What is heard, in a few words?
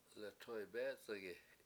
letoybEEc71gi it chokes me, Washo